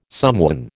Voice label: "somewhen", American

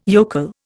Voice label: "yokel", Indian

